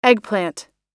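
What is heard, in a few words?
"eggplant", American English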